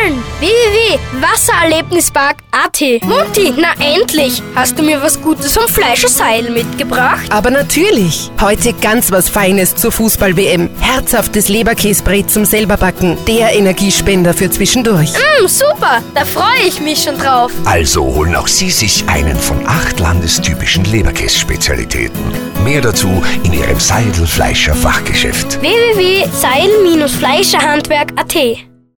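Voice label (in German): Kinder Showreel, Kinderstimmen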